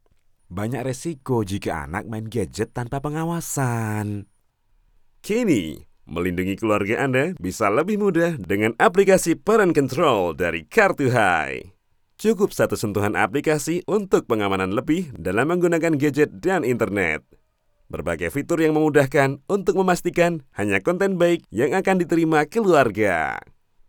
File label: CASUAL INDONESIA